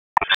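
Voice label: Police Northeast District Disp, radio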